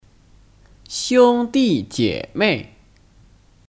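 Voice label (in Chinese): "兄弟姐妹", Mandarin Chinese; Xiōngdì jiěmèi; Kata benda; Saudara laki-laki dan perempuan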